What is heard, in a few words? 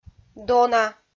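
"дона", Komi, female; kpv audio dict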